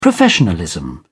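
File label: "professionalism", English, British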